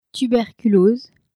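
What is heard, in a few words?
"tuberculose", French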